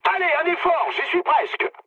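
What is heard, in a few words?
near waypoint, mood medium, French; War Thunder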